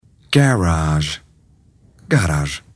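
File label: "garage", English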